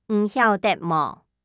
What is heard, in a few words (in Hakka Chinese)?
你曉得無, 客語